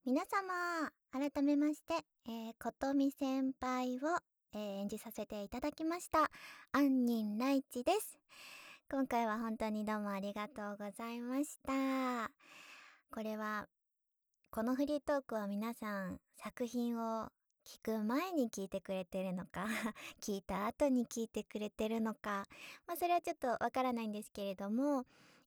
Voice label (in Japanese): フリートーク; ASMR